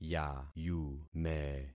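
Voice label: "yayume", concatenated syllables; Affixing experiment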